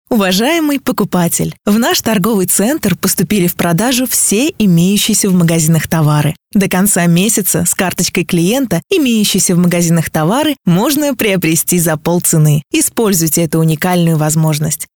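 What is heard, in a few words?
Russian, female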